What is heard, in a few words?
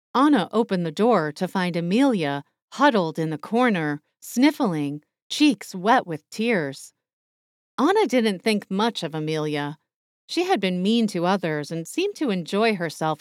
Young Teen Girls and Narrator Young Teens book